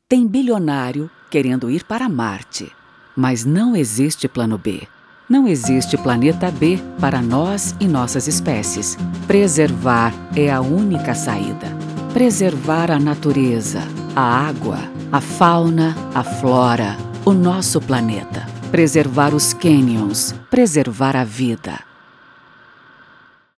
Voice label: Feminino, Português - Brasileiro; Curitiba, Parana - BR

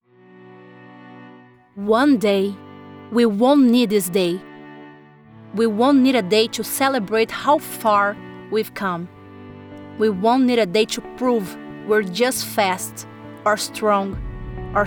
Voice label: Inglês - América do Norte, Voz Feminina - Inglês, Voz Padrão - Grave

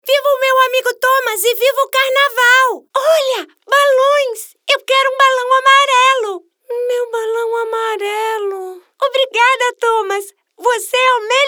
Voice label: Português - Brasileiro, Voz Criança

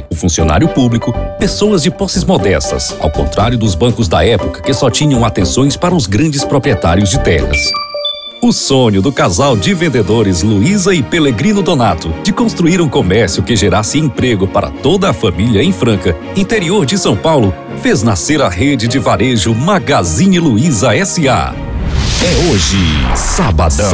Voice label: Locução Publicitária, Masculino, Português - Brasileiro; Piracuruca, Piaui - BR

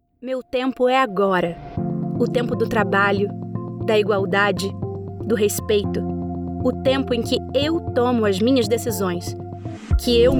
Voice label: Português - Brasileiro, Voz Feminina Suave, Voz Jovem; Dia da Mulher

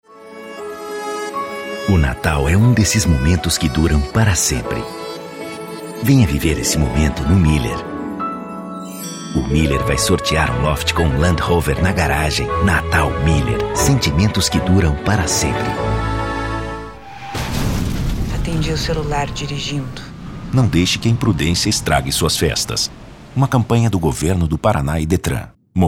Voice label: Português - Brasileiro, amostras de voz, Masculino; Masculino (57 anos)

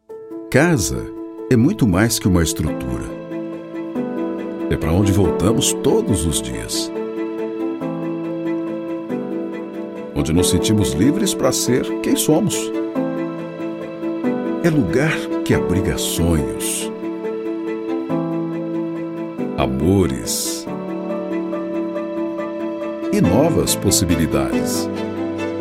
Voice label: Português - Brasileiro, Masculino, Natural, Voz Madura; Ribeirao Preto, Sao Paulo - BR; JMX Construtora/Natural

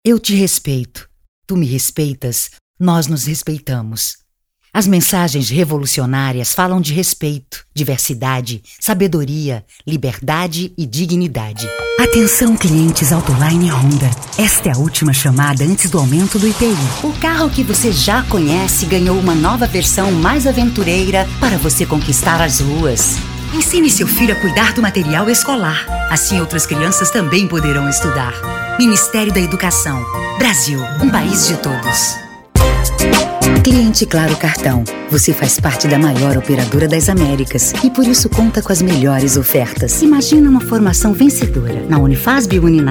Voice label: Português - Brasileiro, Portifólio comercial resumido, Voz Padrão - Grave, Feminino; Sao Paulo, Sao Paulo - BR

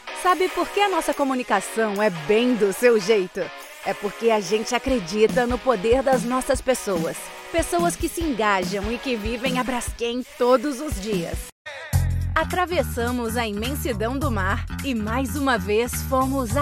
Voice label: Português - Brasileiro, Reel Locução, Voz Padrão - Grave, Feminino; Reel Locução 2023; Feminino (35 anos)

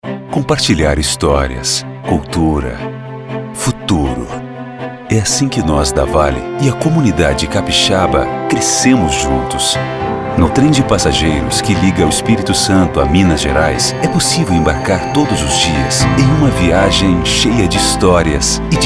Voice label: Português - Brasileiro, Institucional, Voz Padrão - Grave, Masculino; Curitiba, Parana - BR; VALE - Institucional

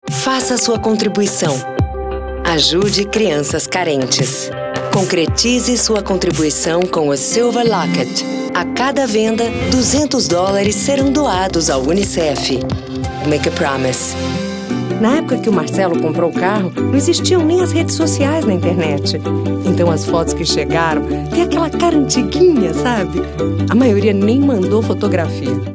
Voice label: Português - Brasileiro, Locução Comercial, Feminino; Sao Paulo, Sao Paulo - BR

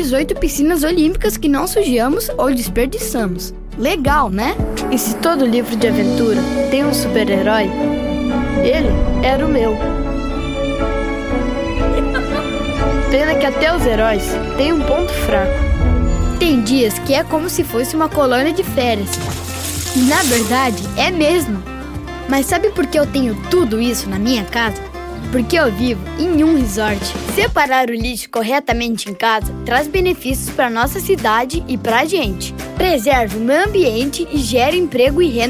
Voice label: Voz Infantil, Português - Brasileiro, Masculino (13 anos), Medley Campanhas; Santa Cruz do Sul, Rio Grande do Sul - BR

